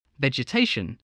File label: "vegetation", English